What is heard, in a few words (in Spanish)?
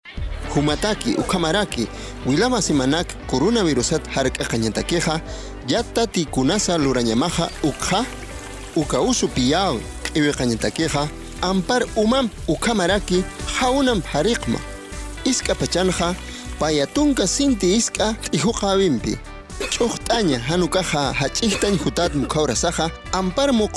aimara, spot de radio; recomendaciones generales; medidas de prevencion